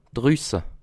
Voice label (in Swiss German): "drusse", alsace